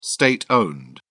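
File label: "state owned", British English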